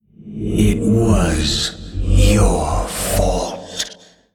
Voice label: Male Old God In Play